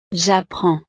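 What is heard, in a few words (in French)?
"japprends", French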